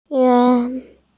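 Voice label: "da4yue1", Mandarin Chinese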